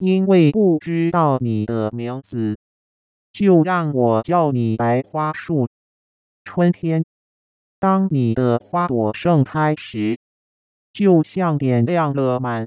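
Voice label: Synthetic Speech, Mandarin singing voice synthesis, Timbre transformation: female => male; female => male(VTL:100/80%, Pitch:140Hz); Using spectrum-progression model and HNM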